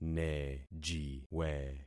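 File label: "nejiwe", concatenated syllables; Affixing experiment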